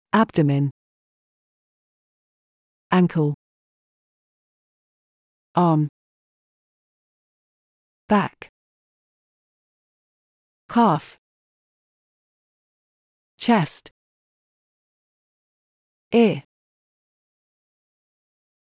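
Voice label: British; wordbank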